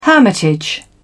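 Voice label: "hermitage", British English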